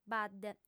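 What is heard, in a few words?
"badde", female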